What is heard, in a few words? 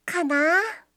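"kanaa", Japanese; kiku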